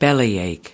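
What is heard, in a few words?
"bellyache", US English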